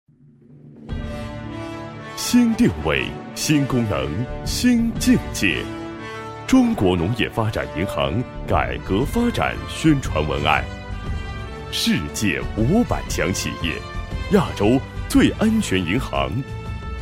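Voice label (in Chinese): 男国大音; 农业发展银行-样音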